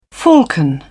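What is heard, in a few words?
"falcon", British English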